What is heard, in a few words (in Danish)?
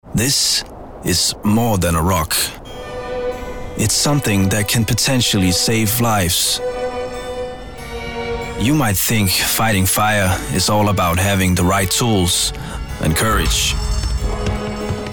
Engelsk